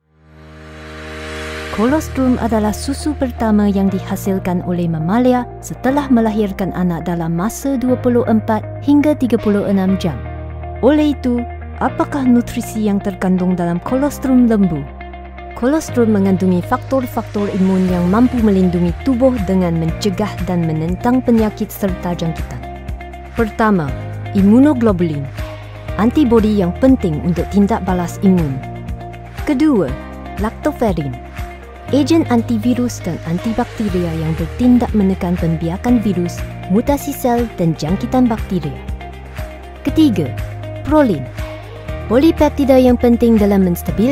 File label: malay corporate videos